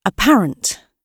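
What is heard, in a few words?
"apparent" British English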